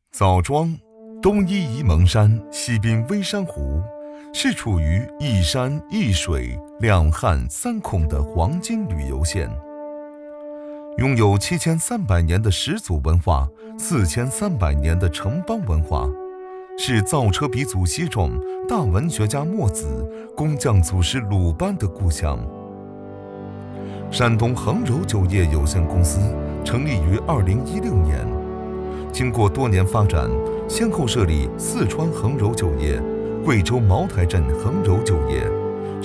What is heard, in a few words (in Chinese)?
娓娓道来